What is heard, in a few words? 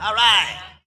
all right cartoon; Voice